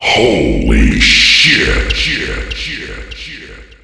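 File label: "holy shit", male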